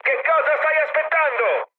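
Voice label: Italian attack position mood med, speech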